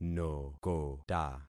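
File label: "nokota", concatenated syllables; Affixing experiment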